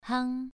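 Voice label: "hang", Mandarin Chinese, second tone, pronunciation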